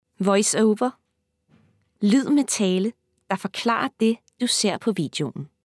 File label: Voiceover